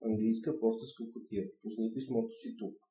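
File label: uk post box, Bulgarian; tuxpaint-stamps; town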